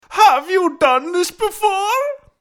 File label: Character Voice